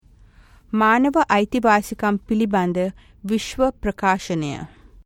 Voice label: Sinhalese title